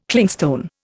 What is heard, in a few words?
"Clingstone", Indian